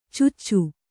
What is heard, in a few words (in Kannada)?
"ಚಚು", Kannada